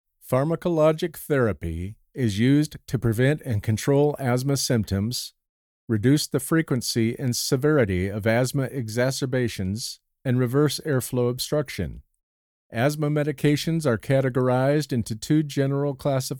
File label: medical Narration